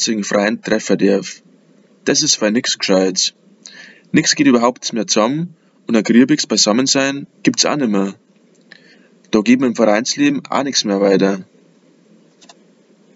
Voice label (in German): Dialekt Textbeispiel; Textbeispiel 1 Dialekt